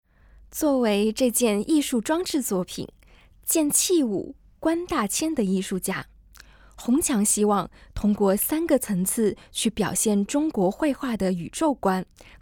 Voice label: Putonghua, audio-guide